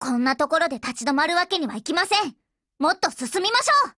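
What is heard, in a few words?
WIN Japanese, Voice; GF Alarm; BrowningHP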